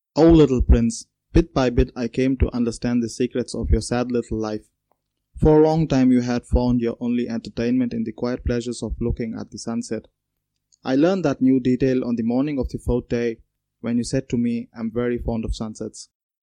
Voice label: Englisch Bangalore

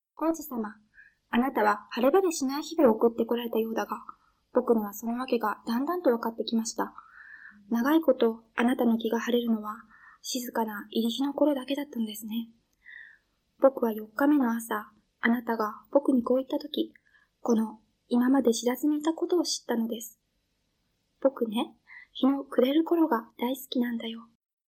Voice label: Japanisch Miyazaki

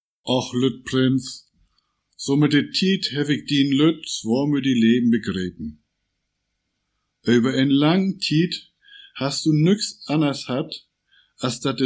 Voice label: Deutsch, St. Peter Ording

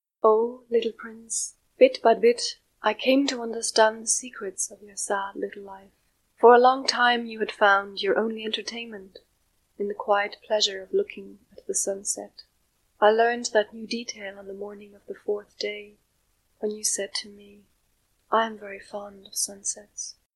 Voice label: Englisch Irland

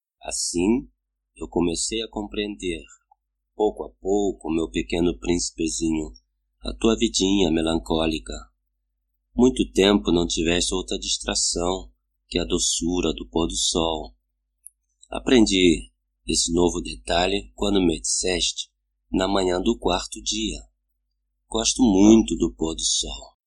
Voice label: Portugiesisch Rio de Janeiro

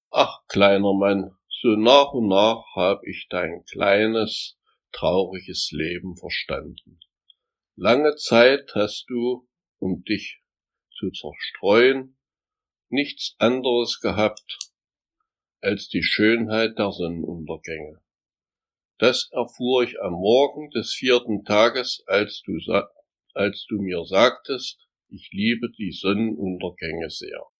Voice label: Deutsch Halle

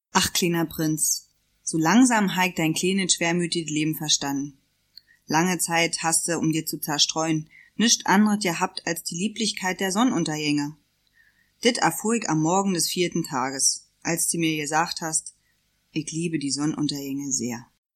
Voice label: Deutsch Berlin

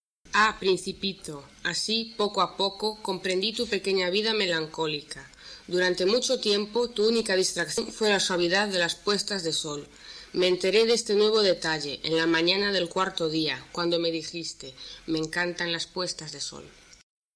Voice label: Spanisch Vigo

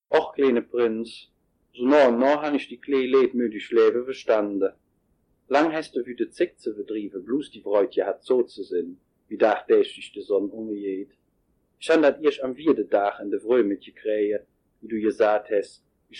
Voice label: Deutsch Oberzier

